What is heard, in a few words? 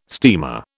"steamer", British